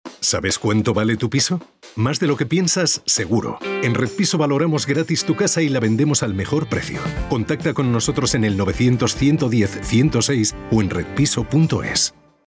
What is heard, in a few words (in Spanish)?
cuña radio